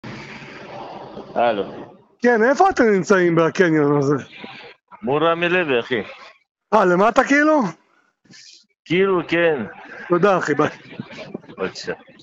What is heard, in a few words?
Call recording